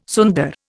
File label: "sunder", Indian